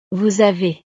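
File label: "vous avez", French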